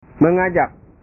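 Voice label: "mengajak", Indonesian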